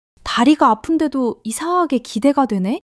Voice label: excitement; monologue